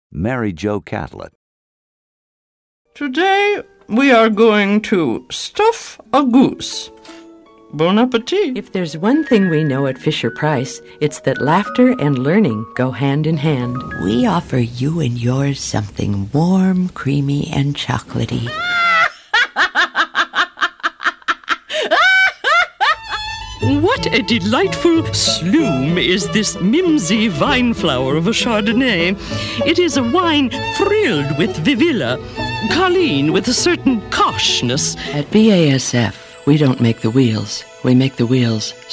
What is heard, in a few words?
commercial; Innovative LA